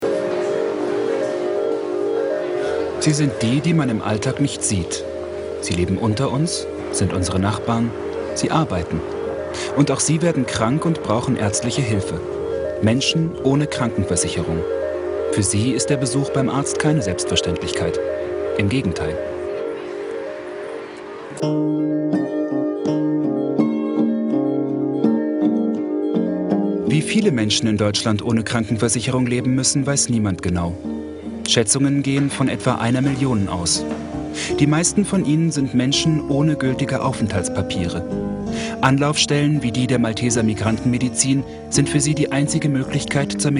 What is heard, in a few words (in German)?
kommentar